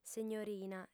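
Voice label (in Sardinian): "segnorina", female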